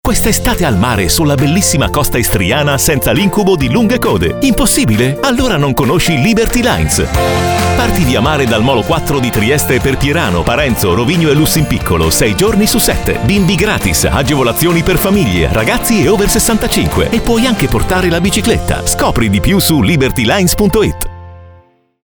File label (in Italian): TONO ISTIT, demo voci pubblicita radiofonica